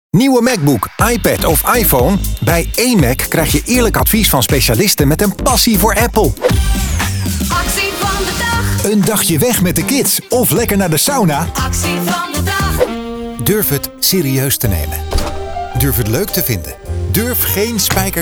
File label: commercial